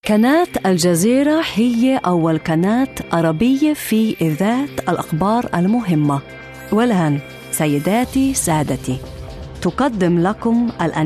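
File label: Arabic; Arabic (Iraq), Middle Eastern, Male, 30s-50s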